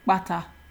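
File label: "kpata", Nigerian Pidgin, male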